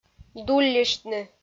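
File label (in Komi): "дулльӧсьтны", Komi, female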